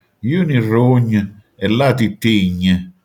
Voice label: "rogna", manfredoniano

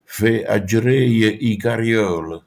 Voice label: "carriole", manfredoniano

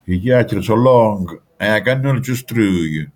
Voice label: "candela", manfredoniano

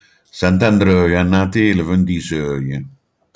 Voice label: Sand'Andröje a Natéle vindisöje, Manfredoniano; Sand'Andröje a Natéle vindisöje / Sant'Andrea, a Natale ventisei.; Proverbi e Detti

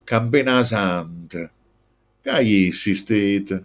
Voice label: "campana", manfredoniano

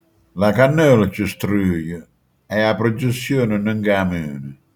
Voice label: "candela", manfredoniano

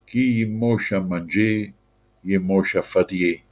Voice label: "moscio", manfredoniano

